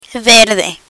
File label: "verde", Spanish; spanish colors